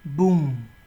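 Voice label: "boom", Nigerian Pidgin, male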